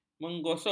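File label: "menggosok", Malay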